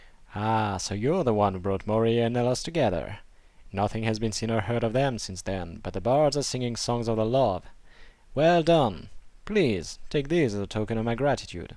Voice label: breton voice auditions